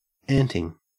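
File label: "anting", Australian English; pronunciations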